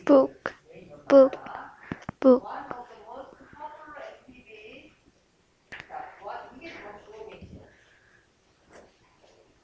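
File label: "book", spoken word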